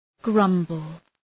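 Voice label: "grumble", English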